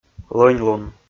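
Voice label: "лӧньлун", Komi, male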